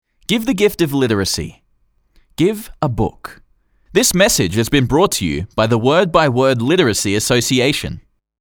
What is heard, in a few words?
Literacy TV Spot